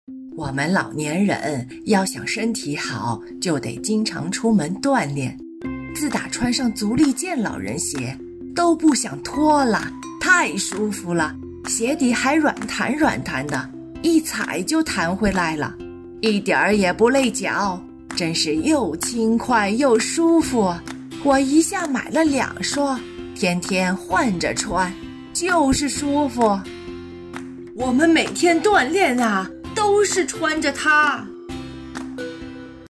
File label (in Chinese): 电视广告老年音